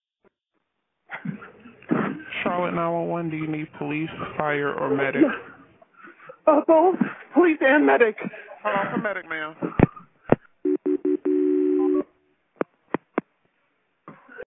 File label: 911 call